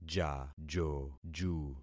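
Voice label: "jajoju", concatenated syllables; Affixing experiment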